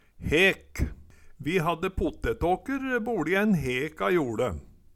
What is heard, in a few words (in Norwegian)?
"hek", Numedalsmål; Vi hadde pottetåker boLi en hek a joLe